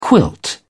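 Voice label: "quilt", British English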